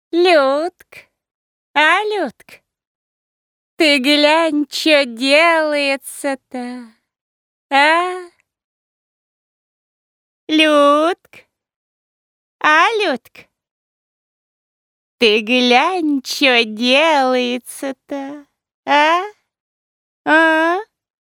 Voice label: Parodiya; Пародия на фразу «Людк, а Людк» из х/ф «Любовь и голуби»